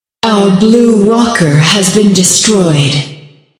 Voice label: female ally blue walker destroyed, announcer female; patron